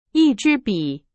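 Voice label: Chinese, example sentence; hsk2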